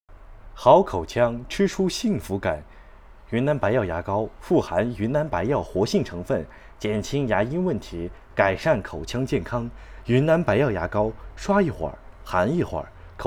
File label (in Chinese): 配音广告